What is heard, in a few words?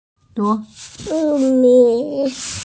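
"yu4mi3", Mandarin Chinese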